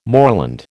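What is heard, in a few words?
"moorland", American English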